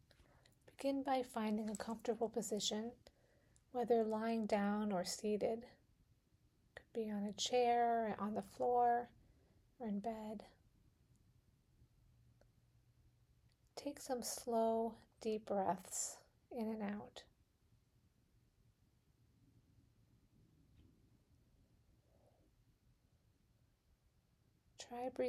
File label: Soothing Stream Guided Imagery